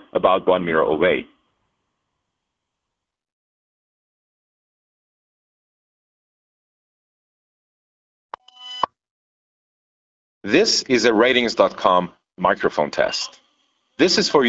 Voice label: voice subway standard vs bt le; Sony WH-1000XM6; speech + subway noise (0:00–0:48 HFP / 0:49–1:33 LC3)

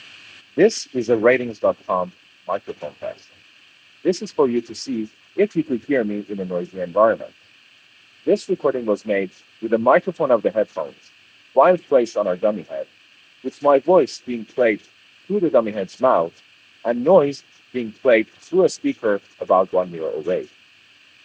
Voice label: in-line noise

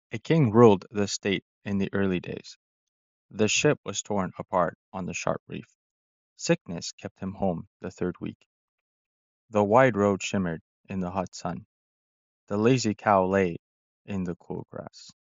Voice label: call recoding case voice; earbuds

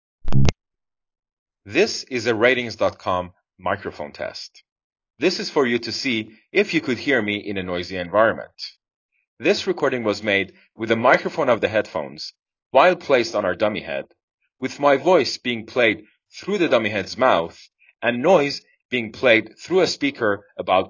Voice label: phone mic

